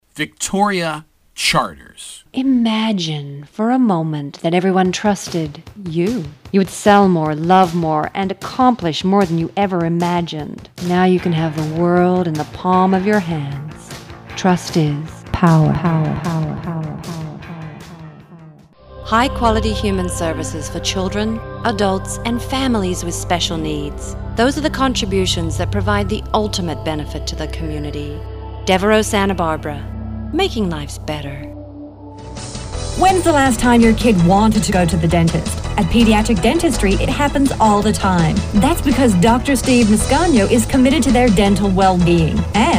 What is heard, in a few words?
Commercial